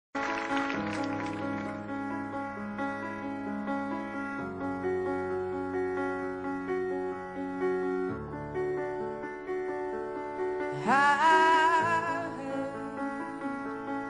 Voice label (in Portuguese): crise masc, Telemensagem Relacionamento Crise – Voz Masculina